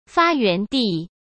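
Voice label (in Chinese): "发源地", Mandarin Chinese; chinese flashcards; hsk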